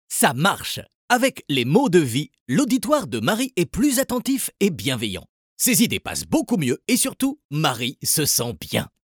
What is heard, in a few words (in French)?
voix homme